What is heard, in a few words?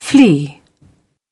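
"Flee", British English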